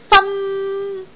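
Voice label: "fan1", Cantonese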